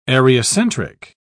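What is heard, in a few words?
"areocentric", English, British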